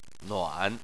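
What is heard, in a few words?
"nuan" third tone, Chinese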